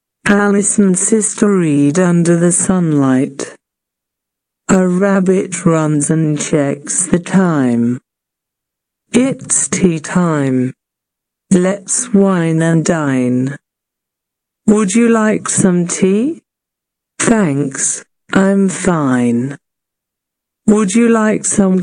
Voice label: Slow